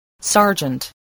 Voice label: "sergeant", spelling